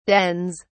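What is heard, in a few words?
"dens", British English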